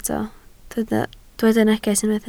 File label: "neges", Welsh